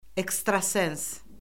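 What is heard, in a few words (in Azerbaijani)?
"ekstrasens", Azerbaijani; Orfoqrafiya Lüğəti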